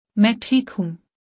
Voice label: I am fine. - Urdu; Basic Greetings and Courtesy

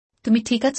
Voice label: are you okay bangla, Health and Well-being

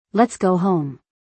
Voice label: Let's go home, English; Time and Routine

